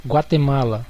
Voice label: "guatemala", pt_BR; gcompris; geography